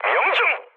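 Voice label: Korean bomb success mood med, speech; War Thunder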